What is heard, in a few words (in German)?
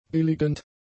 "billigend", German; adjectives